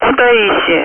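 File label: Speech, Russian, air traffic control; Callsign; Kutaisi; DCS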